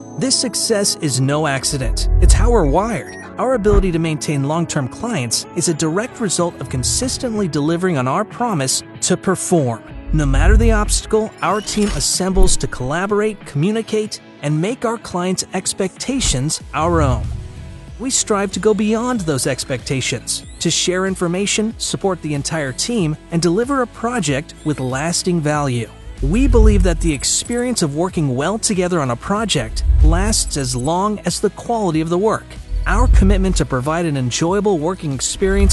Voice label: Safety Explainer